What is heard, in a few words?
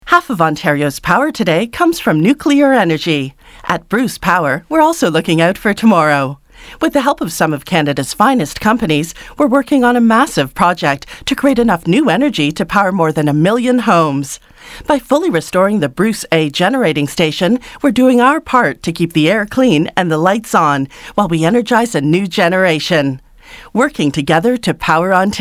television; Bruce Power